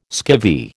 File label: "skivvy", American